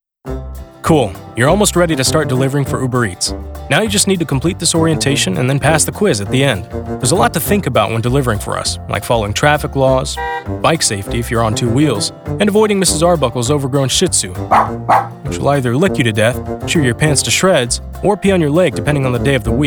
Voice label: eLearning

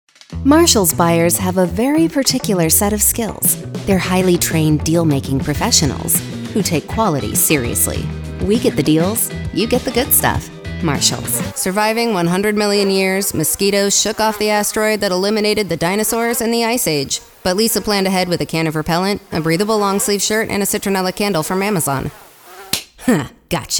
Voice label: Commercial

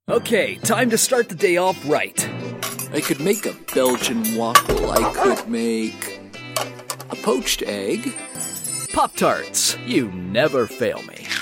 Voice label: Character

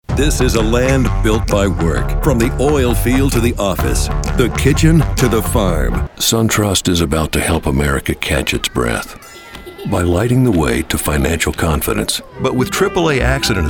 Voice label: Commercial English

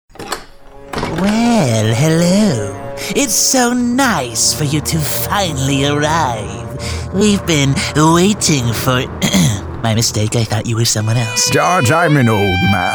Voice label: Animation

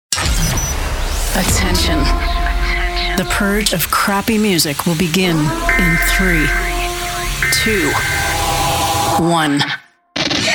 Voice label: Radio Imaging English

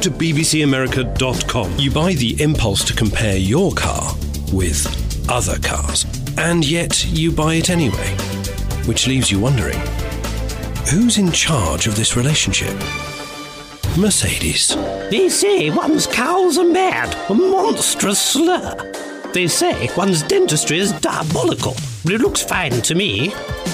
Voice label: Commercial British

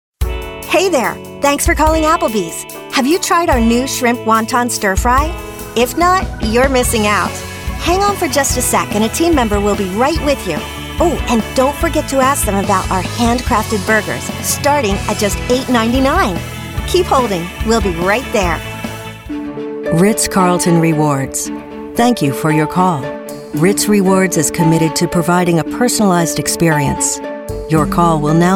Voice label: IVR

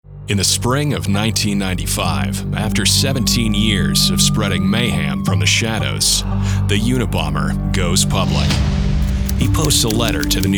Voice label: Narration

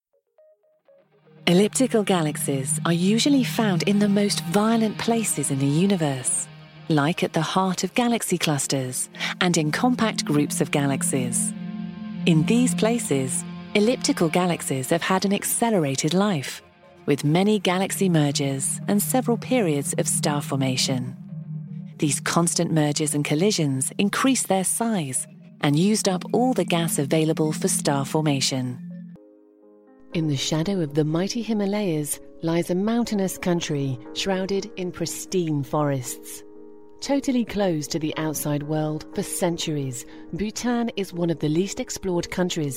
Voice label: Documentary

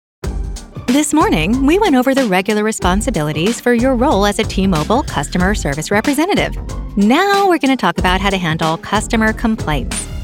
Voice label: E Learning

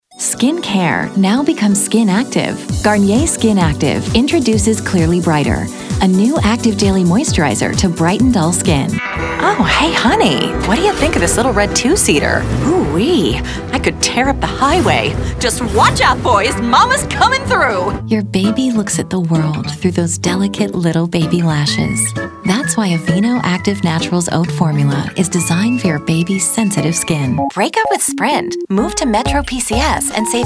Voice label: Commercial

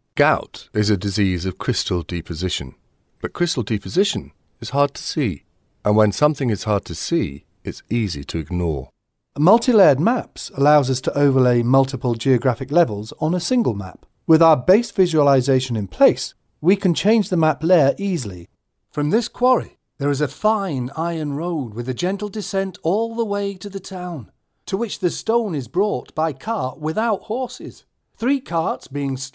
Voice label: Corporate

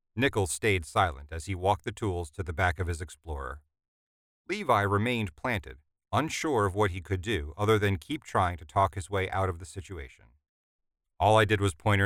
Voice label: Audiobook Fiction

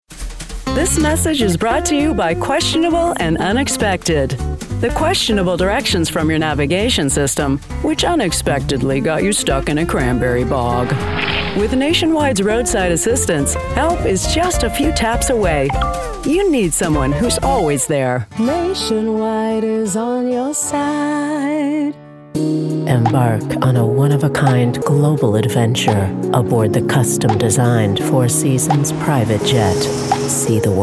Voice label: Commercial English

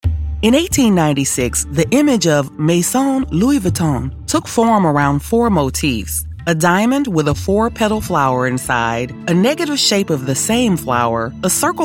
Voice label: Narration

